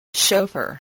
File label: "chauffeur", English, voice